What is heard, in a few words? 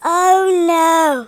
"uh oh", Hippy